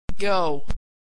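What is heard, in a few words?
"go", American English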